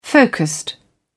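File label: "focused", British English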